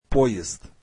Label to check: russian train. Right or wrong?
Right